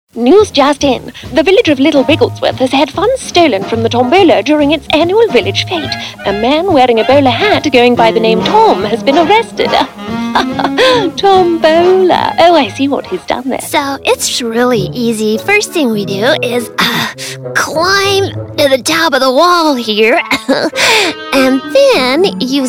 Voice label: english british animation

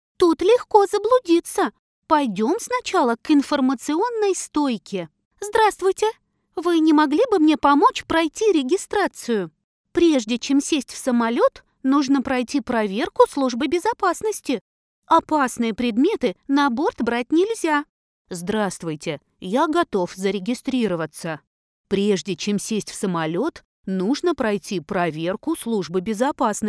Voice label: russian animation

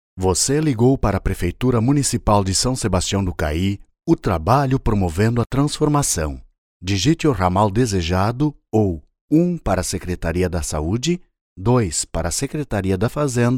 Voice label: portuguese brazilian ivr; warm, clear and friendly voice

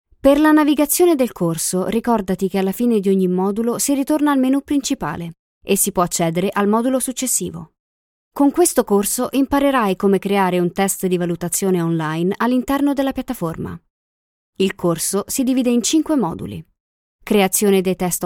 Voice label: italian training e-learning

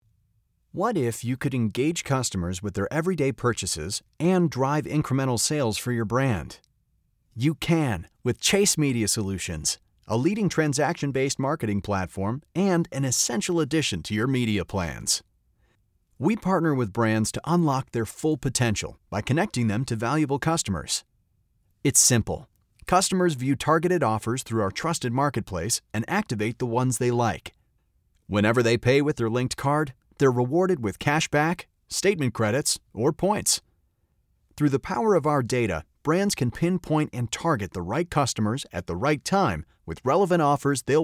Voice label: english american corporate videos

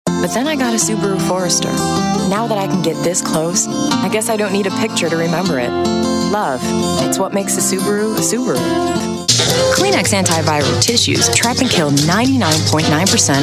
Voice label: english american commercial

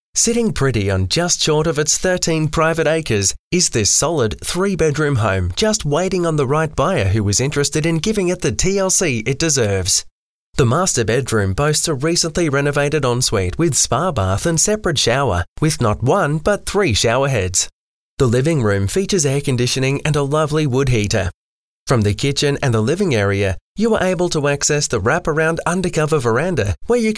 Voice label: english australian real estate; Modulated, clear Australian accent

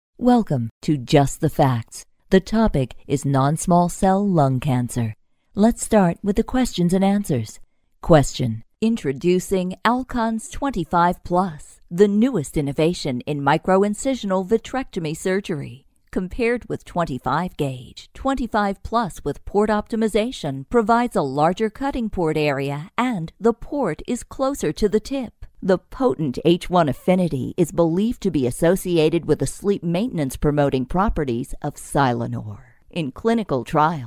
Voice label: english american others

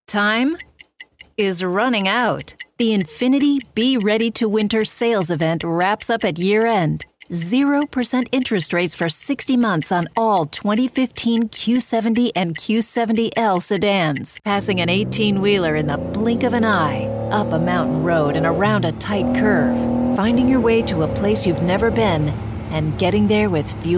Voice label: english american automotive

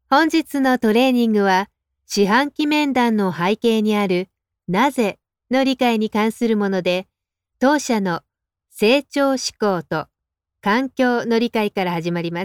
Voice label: japanese training e-learning

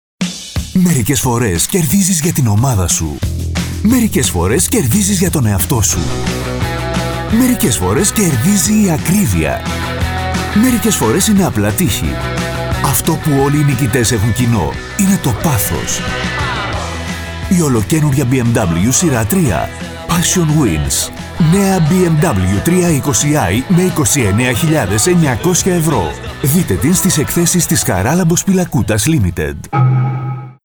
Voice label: greek corporate videos

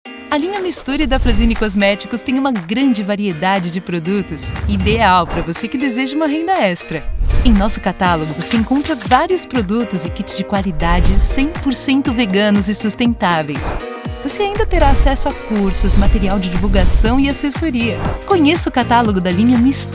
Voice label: portuguese brazilian tv shows

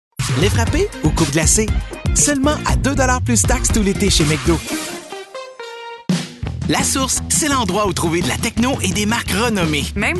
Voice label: french canadian commercial; Francés (Canadá)

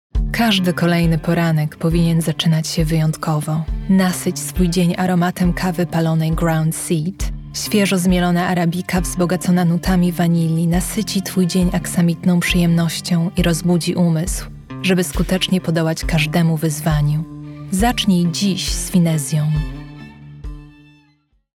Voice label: polish others

